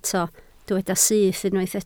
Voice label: "syth", Welsh